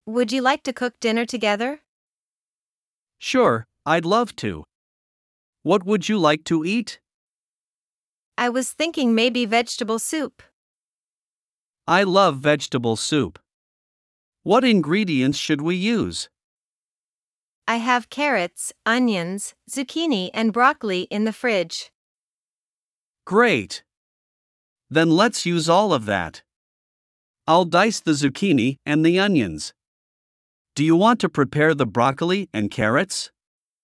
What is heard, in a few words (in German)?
Dialog; Zusammen kochen